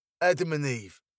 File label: "Adam and Eve", British